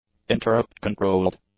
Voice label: "interrupt-controlled", english; adjectives

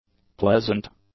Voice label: "pleasant", english; adjectives

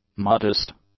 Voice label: "modest", English; adjectives

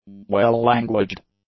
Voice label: "well-languaged", English; adjectives

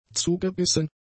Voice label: "zugebissen", German; adjectives

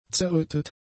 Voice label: "zerrüttet", German; adjectives

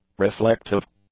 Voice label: "reflective", English; adjectives

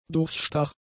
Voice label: "durchstach", german; adjectives

